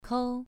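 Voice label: "kou", Mandarin Chinese, second tone, pronunciation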